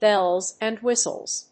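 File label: "Bells and Whistles", English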